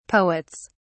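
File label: "poets", British English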